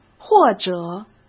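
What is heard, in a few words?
"或者", Mandarin Chinese; hsk; chinese-flashcards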